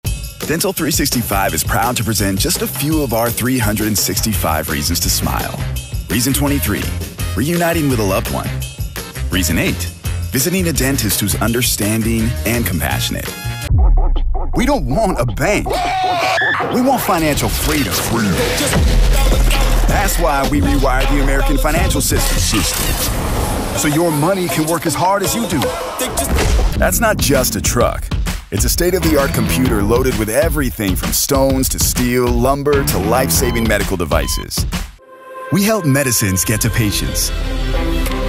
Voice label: Commercial Demo